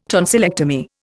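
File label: "tonsillitis", Indian; Dictionary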